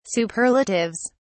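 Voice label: "superlatives", English, British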